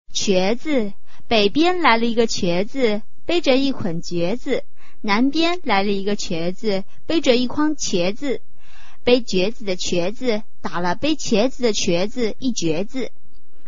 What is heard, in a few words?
chinese tongue twister